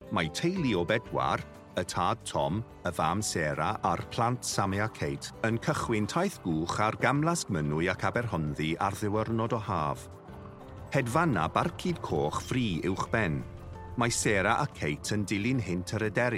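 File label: CY General, Welsh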